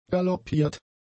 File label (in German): "galoppiert", German; adjectives